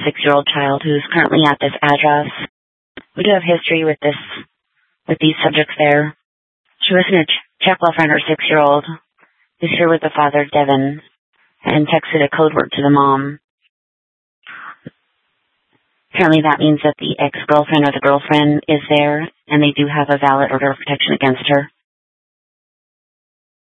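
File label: Scottsdale PD North Dispatch; Nth Dispatch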